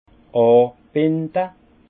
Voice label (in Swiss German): "abinde", alsace; vin; attacher le cep